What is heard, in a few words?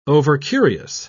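"overcurious", British English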